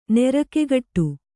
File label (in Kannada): "ನಿರಿಗೆಗಟ್ಟು", Kannada